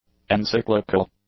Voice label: "encyclical", English; adjectives